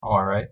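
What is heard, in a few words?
"all right", English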